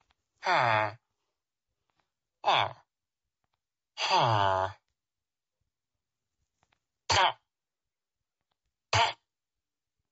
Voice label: villagers sound effect; Minecraft

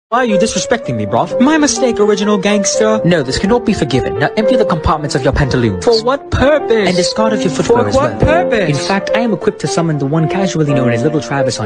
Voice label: british argument

